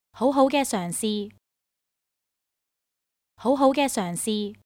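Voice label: Good try, Chinese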